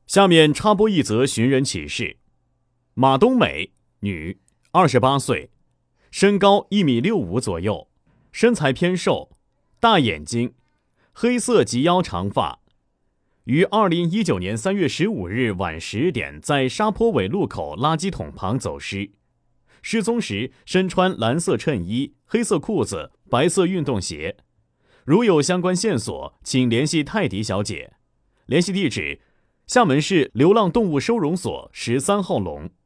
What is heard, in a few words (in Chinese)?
男19号新闻 寻人启事